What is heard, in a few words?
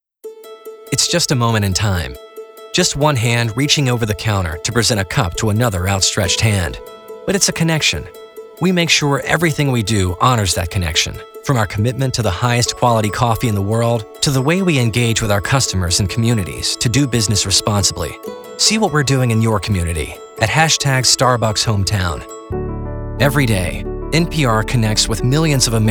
Corporate Narration